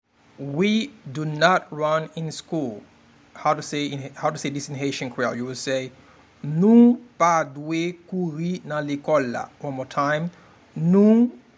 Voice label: we do not run in school in Haitian Creole Nou pa dwe kouri nan lekol la pronunciation